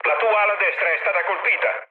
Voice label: right wing mood low, speech it; War Thunder

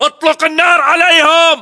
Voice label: order action suppress, battle chatter, english

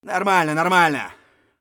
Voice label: scav mutter, voice; Escape from Tarkov; scav1

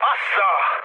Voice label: trick, mood high, speech hun; War Thunder

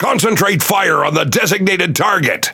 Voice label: Pirate Speech Priority Target; World Of Warships

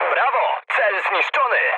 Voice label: bomb success mood med, speech pol; War Thunder

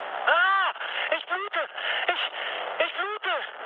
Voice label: damaged pilot, mood med, speech ge; War Thunder

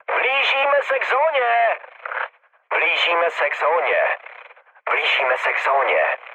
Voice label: near waypoint, speech cze, mood high; War Thunder

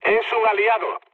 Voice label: player friendly fire, mood low, speech

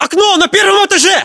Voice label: location first floor window, ru; localized english

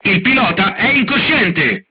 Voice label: driver contused, crew tanks italian; War Thunder

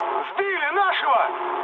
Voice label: aircraft destroyed, mood med, speech ru

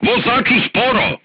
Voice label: voice message drive slowly, crew tanks serbian

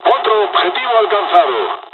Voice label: japanese first wave, dialogs spanish; War Thunder

